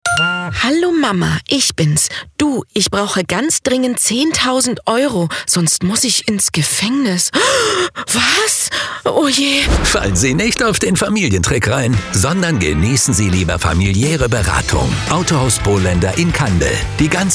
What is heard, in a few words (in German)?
Funkspot Auto; Bohlender